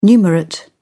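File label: "numerate", British English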